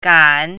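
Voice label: "gan3", Mandarin Chinese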